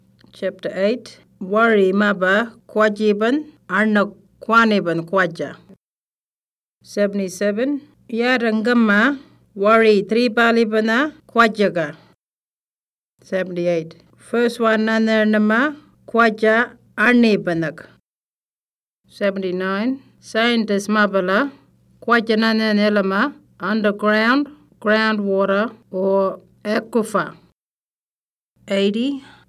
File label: Western Arrarnta; Fracking